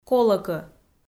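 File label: "колӧкӧ" Komi, female; audio dict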